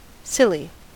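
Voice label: "silly", American English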